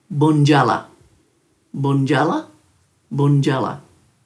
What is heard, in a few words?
"bundjala-", Dhurga